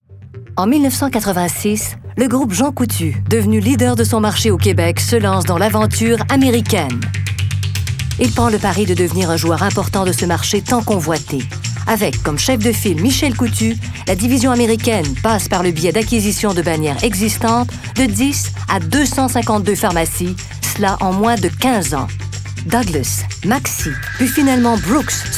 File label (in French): Voix Narration; Jean Coutu